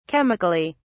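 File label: "chemically", British English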